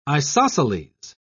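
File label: "isosceles", British English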